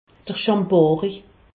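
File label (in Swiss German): "schambarri", Alsatian; medecine; pubis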